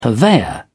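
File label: "purveyor", British English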